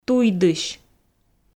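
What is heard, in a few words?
"туйдысь", Komi, female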